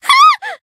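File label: damaged, Japanese; saki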